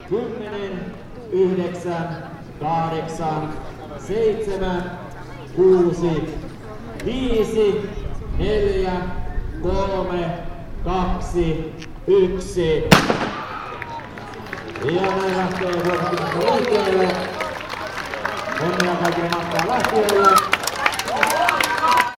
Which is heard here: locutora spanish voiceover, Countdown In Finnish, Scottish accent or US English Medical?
Countdown In Finnish